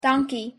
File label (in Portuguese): pronuncia obrigado em africaner